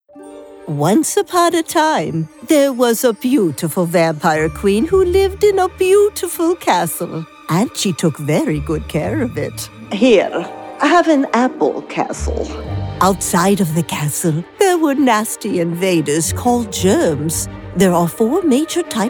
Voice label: K12 eLearning